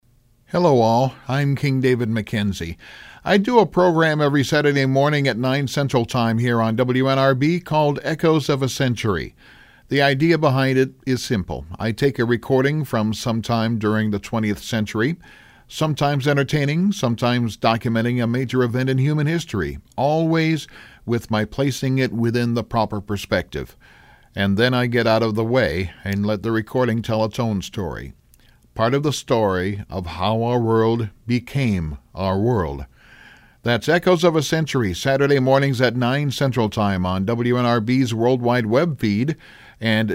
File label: promo; wnrb